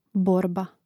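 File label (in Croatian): "borba", Hrvatski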